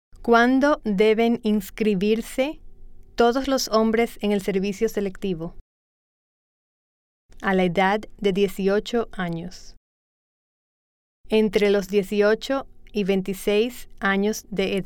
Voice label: Spanish